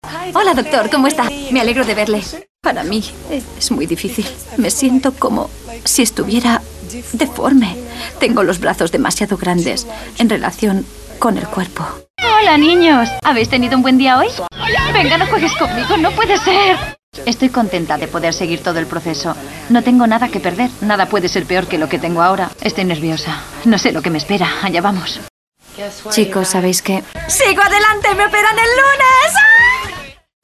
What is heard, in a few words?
spanish documentaries